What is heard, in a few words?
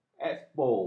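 "ekspo", Malay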